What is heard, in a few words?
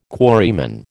"quarryman", American